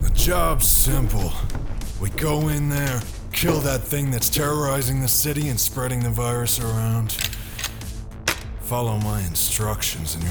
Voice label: Character, en-GB